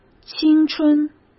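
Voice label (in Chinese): "青春", Mandarin Chinese; chinese flashcards; hsk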